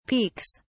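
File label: "peeks", English, British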